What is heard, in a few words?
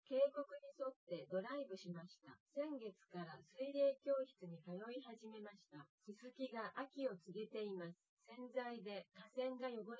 female synthconv 130ms 5cm; SiSEC08; SiSEC underdetermined